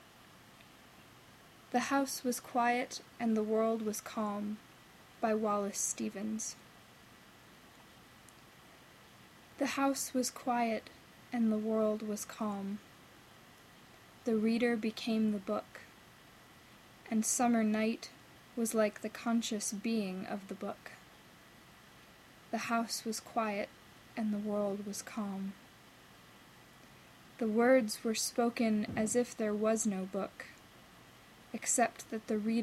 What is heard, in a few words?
Poem